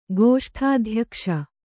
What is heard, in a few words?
"ಗೋಷ್ಠಾಧ್ಯಕ್ಷ", kannada